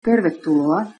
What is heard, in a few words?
welcome, finnish